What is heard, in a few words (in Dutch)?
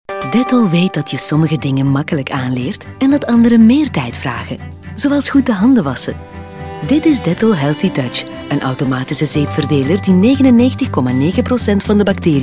neutraal; neutral; Dettol